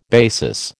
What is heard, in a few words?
"basis", American